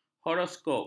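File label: "horoskop", Malay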